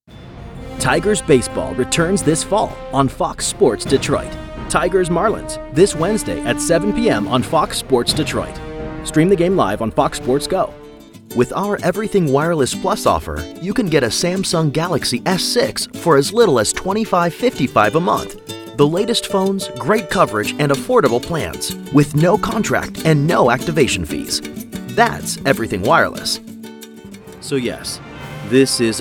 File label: Rapid Fire Commercial